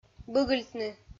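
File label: "быгыльтны", Komi, female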